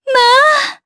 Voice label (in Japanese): Happy, jp; kingsraid